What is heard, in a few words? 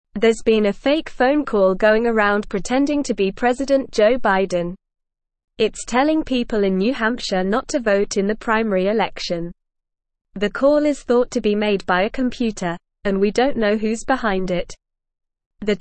English Upper Intermediate NORMAL Reading; English Newsroom; Fake Robocall Impersonating Biden Urges Voters to